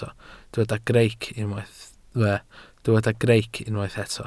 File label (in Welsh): "greic", Welsh